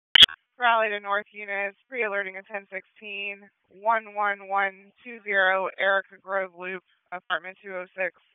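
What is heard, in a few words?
Police Northeast District Dispatch; Raleigh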